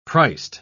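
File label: "priced", British English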